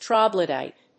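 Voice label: "troglodyte", English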